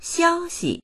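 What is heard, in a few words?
"xiao1xi", Mandarin Chinese; 消息; 情報、ニュース、便り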